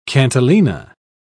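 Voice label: "cantilena", British English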